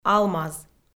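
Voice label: "алмаз", Komi-Zyrian, female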